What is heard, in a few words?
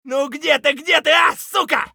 scav battlecry; eft